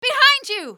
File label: behind you, female; Half-Life 2